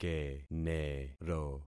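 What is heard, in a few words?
"kenero", concatenated syllables; Affixing experiment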